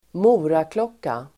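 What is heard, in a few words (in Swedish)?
"moraklocka", Swedish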